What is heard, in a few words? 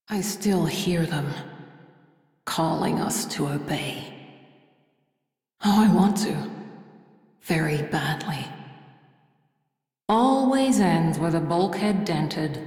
Crew Idle, Female; Dialog; en; Warframe; Railjack Crew; Steel Meridian